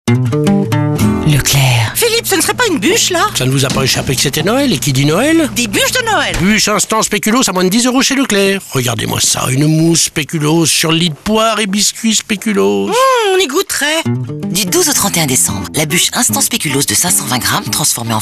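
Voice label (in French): spot, spots radio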